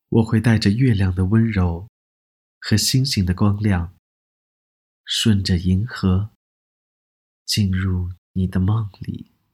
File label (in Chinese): 干声